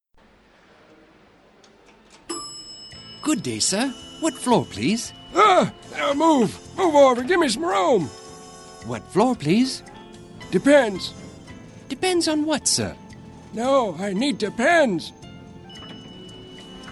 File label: character voice